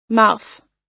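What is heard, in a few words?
"mouth", US English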